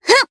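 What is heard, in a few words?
Vox Attack, Japanese; Rodina; kingsraid audio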